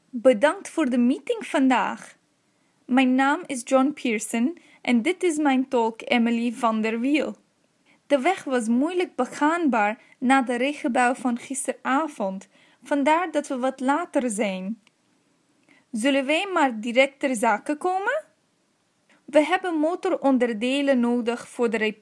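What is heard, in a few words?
Dutch Caribbean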